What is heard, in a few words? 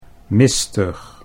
"mistig", Dutch